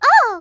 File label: girl rollover; Wii